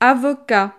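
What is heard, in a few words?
"avocat", French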